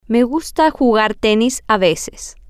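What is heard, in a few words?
I like to play tennis sometimes, Spanish, female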